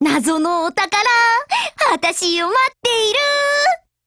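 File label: OPERATION BEGIN, Japanese; F1 Mod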